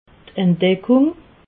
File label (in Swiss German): "entdeckung", alsace